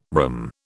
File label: "rhumb", British